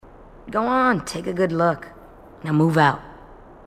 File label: marine tough